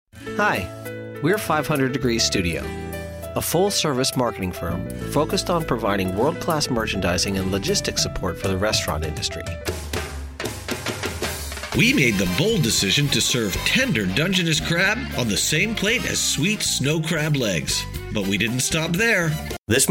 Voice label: Commercial